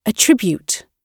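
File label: "attribute" British English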